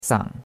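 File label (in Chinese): "sang3", Chinese; Cours-de-Chinois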